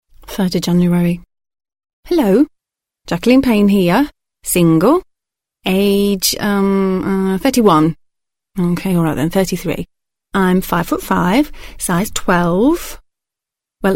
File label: Narration Sizes